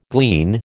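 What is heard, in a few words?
"glean", American English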